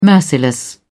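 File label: "merciless", British English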